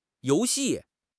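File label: "you2 xi4", Chinese; HSK; HSK3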